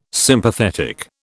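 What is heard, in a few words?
"Sympathetic", British English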